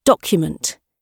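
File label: "document", British English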